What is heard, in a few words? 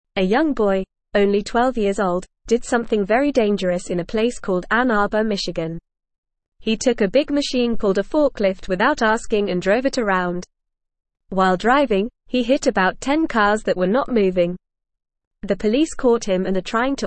English Newsroom Lower Intermediate FAST Reading; Boy Takes Forklift Police Chase Cars Get Bumped